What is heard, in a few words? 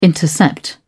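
"intercept", British English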